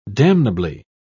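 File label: "damnably", British English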